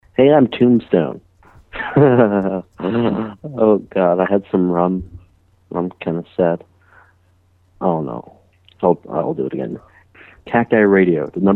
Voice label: promo; Phone Losers of America Media Pack 2014; Live Show Sounds; Drops; tombstone